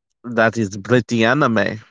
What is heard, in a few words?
voice message